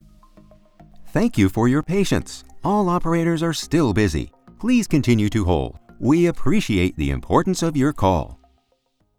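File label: On Hold With Music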